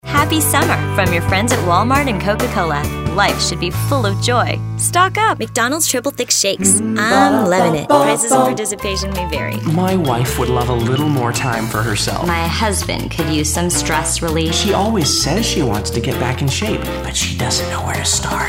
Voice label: Commerials